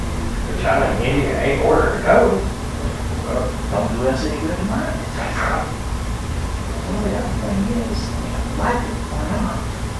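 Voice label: Master Bedroom Unexplained Inaudible Whisper Amplified; Turner Hom; 1st Floor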